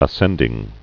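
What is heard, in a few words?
"ascending", spoken word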